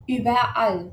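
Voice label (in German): "überall", German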